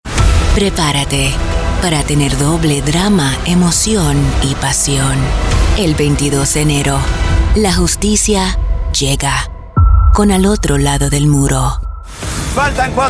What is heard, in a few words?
promo women spanish